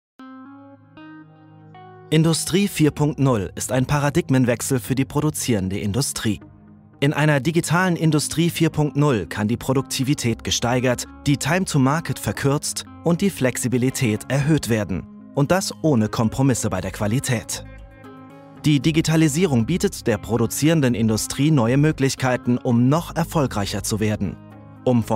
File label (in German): Corporate seriös kurz; Siemens